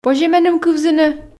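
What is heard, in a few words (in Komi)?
позьӧ меным кывзыны, Komi, female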